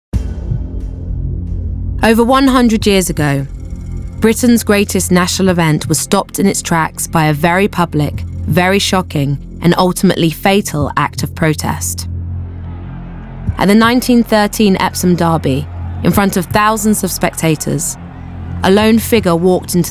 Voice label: NARRATION MIX